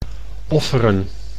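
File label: "offeren", Dutch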